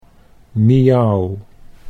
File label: "miauw", Dutch